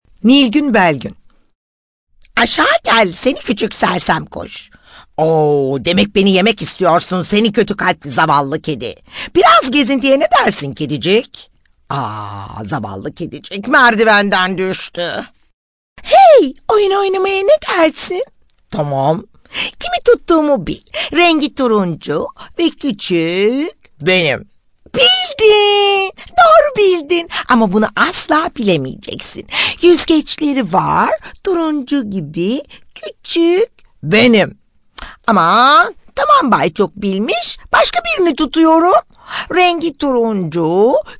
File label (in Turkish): animasyon